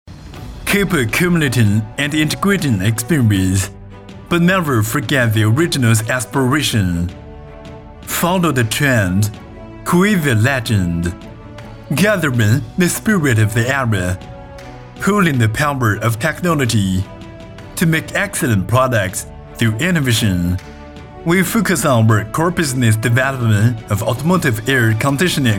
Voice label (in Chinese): 宣传片英文版; 粤海先达车谊家宣传片英文版翻译